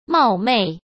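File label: "冒昧", Mandarin; chinese flashcards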